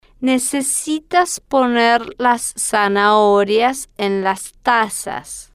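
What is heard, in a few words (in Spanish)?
necesitas poner las zanahorias en las tazas, spanish, female, slow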